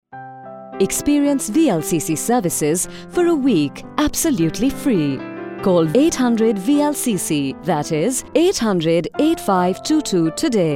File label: Radio Spot; VLCC Dubai